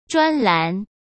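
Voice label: "专栏", Mandarin Chinese; hsk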